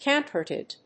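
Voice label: "camphorated", English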